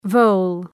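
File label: "vole", English word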